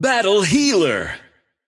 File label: intense